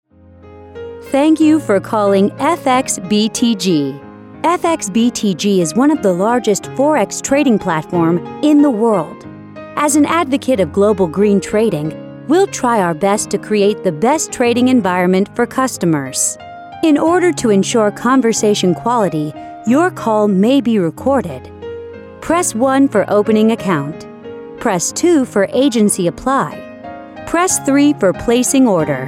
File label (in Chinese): 外籍女, 金融彩铃, 英文